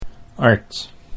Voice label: "Ard", Dutch

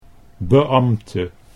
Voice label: "beambte", Dutch

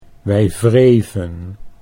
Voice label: wij wreven, Dutch

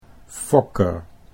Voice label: "fokker", Dutch

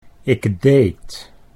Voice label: "ik deed", Dutch

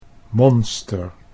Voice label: "monster", Dutch

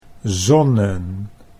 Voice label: "zonnen", Dutch

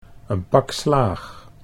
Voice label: 'n pak slaag, Dutch

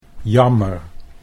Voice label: "jammer", Dutch

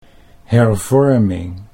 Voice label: "hervorming", Dutch

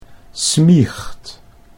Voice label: "smiecht", Dutch

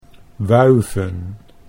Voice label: "wuiven", Dutch

